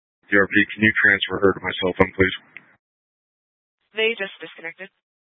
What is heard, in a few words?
Sheriff Dispatch, scanner